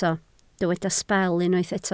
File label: "sbel", Welsh